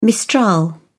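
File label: "mistral", British English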